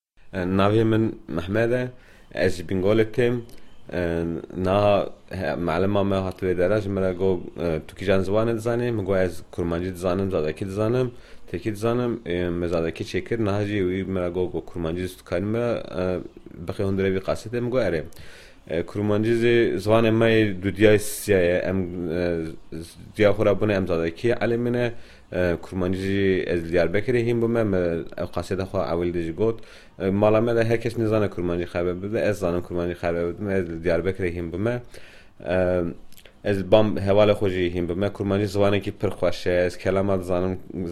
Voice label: Kurdisch

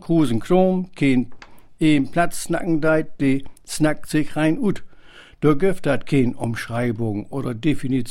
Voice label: Platt

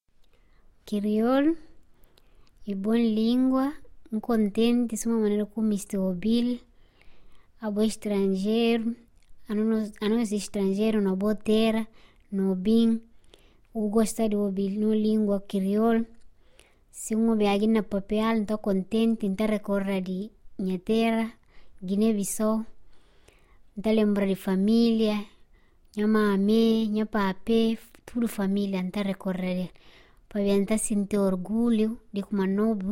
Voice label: Kreol, Kreol Portugiesisch

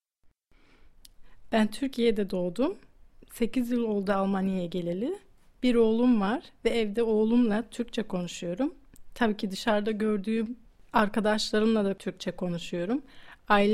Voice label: Tuerkisch

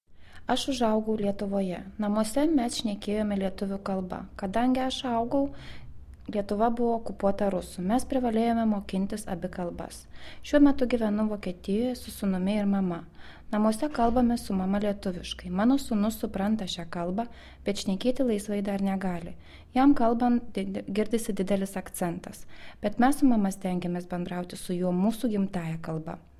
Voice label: Litauisch

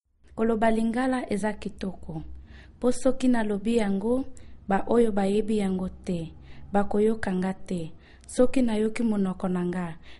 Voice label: Lingala

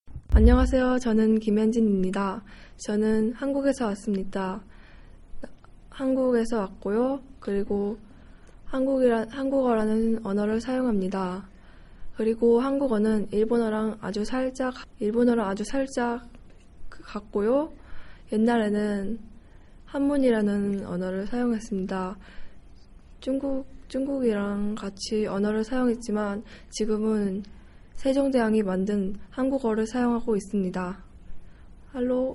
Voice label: Koreanisch

